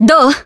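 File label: Voice attack, Japanese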